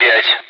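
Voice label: Speech, Russian, air traffic control; Digits; DCS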